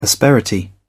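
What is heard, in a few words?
"asperity", English, British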